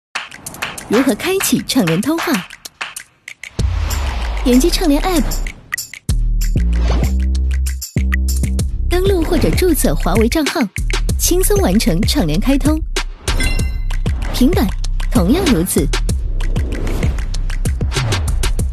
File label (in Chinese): 女 广告 科技年轻; 华为5G